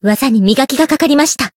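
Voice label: growth, Japanese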